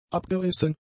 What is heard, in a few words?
"abgerissen", German; adjectives